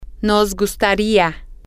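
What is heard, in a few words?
we would like, Spanish, female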